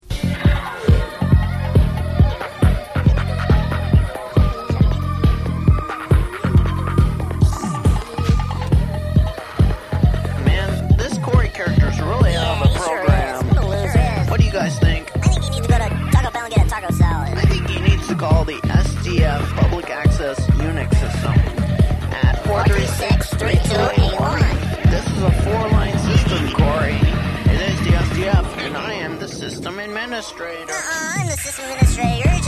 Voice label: commercial